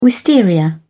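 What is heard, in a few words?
"wisteria", English, British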